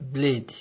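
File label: "blade", Nigerian Pidgin, male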